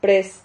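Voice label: "prez", Spanish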